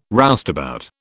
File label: "roustabout", British